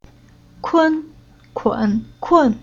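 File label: "Kun", Chinese syllable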